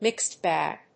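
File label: "mixed bag", English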